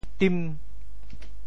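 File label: "tim5", Teochew; 沈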